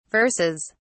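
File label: "verses", British English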